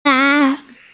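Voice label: "ya2", Mandarin Chinese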